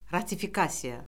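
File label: "ratifikasiya", Azerbaijani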